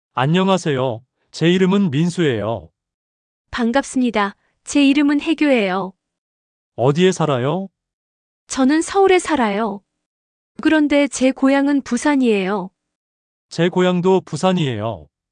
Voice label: Possessive Marker Reading, korean listening practice; Chapter 4